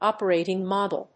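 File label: "operating model", English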